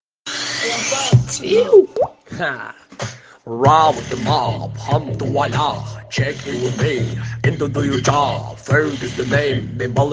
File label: English RAP